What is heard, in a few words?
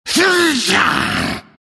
anger; slardar